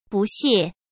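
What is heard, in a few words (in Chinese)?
"不屑", Mandarin Chinese; hsk; chinese-flashcards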